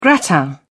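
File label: "gratin", British English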